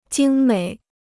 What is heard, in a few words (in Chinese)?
"精美", Chinese